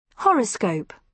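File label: "horoscope", British English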